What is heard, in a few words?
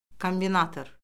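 "kombinator", Azerbaijani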